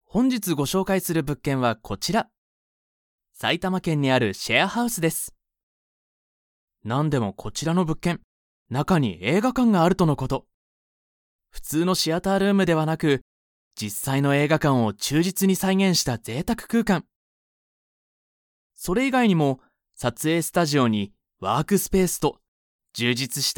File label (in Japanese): NA 明るめ